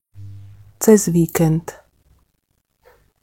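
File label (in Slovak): "cez víkend", Slovak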